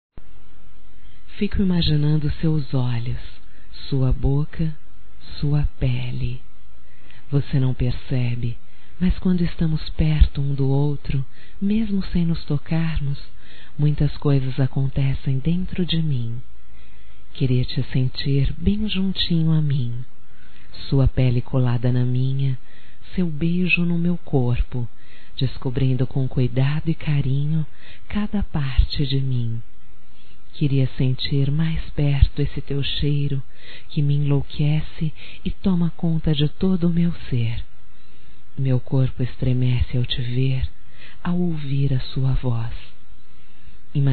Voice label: ERÓTICA - F, Românticas fem

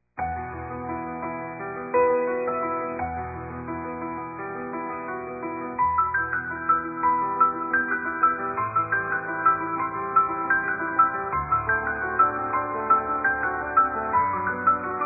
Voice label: Dia das Mães Evangélica Feminino, Telemensagens; Telemensagens 2017

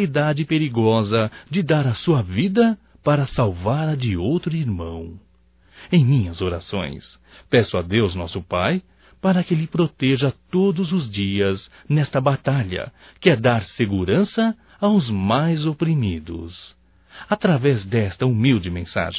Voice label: HOMENAGEM AO POLICIAL MILITAR, Só Voz, masc; Telemensagens; HOMENAGENS

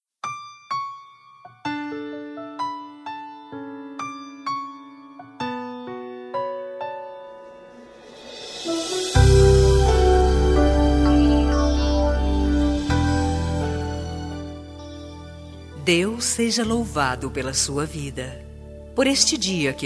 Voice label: EVANGÉLICO FEM voices, Telemensagens Aniversários; Deus de Amor

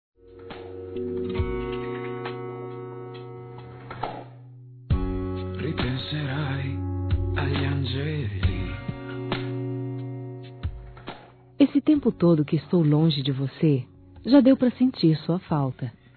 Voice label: Quero te ver Novamente Feminino; Telemensagens; Românticas

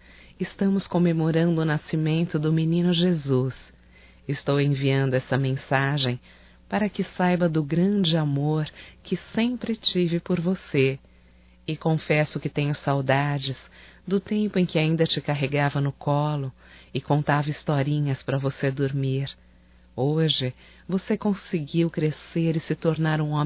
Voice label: NATAL NETO F; fem; Telemensagens 2017; Natal & Ano Novo

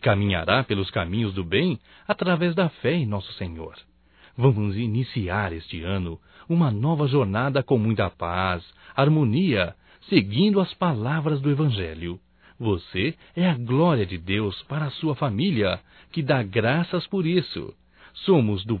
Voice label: Natal e Ano Novo Neutro Masculino; Telemensagens 2017

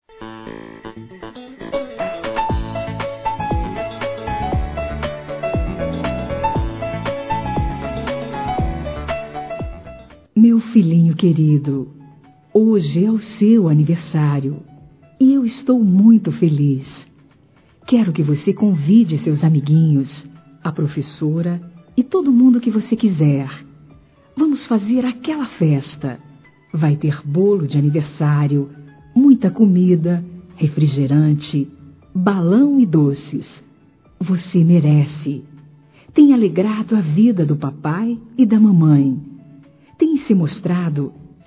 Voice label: Filho Criança Feminino; Aniversários; Aniv Familiar

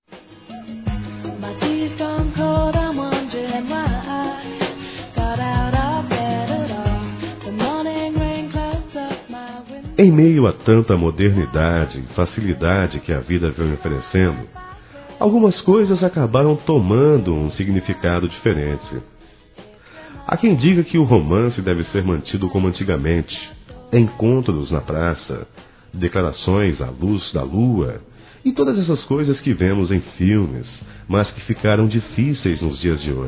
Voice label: Namoro Telefone Masculino, Telemensagens; Telemensagens 2017

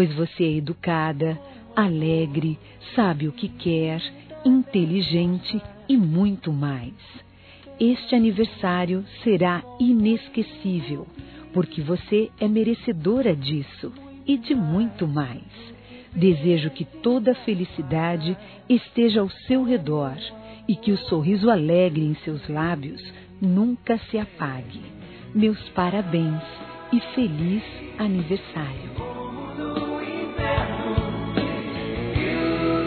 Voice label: NETA F, Telemensagens, Aniversários, Fem; Aniv Familiar

